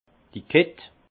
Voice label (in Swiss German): "kitt", alsace; vin; coing